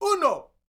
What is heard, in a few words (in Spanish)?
"UNO", MEXICAN; ILIO; Vocal Planet VOL-5